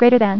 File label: Greater Than, female voice; TalkingKeys